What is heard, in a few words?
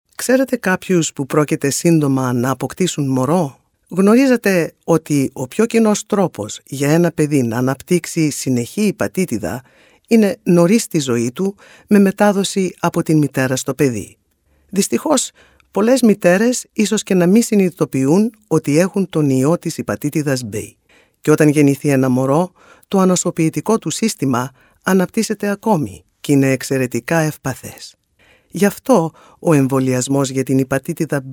Hepatitis B Mother to Child Greek